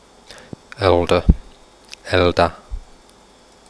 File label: quenya dark l